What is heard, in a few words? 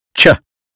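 American phonetics sound cheese; 英语音标发音（剑桥版）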